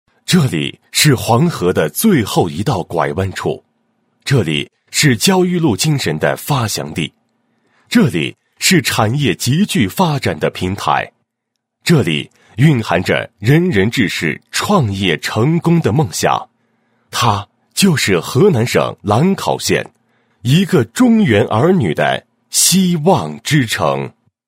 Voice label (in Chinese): 男, 宣传片; 中国电建基础设施建设项目宣传片